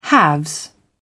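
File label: "haves", British English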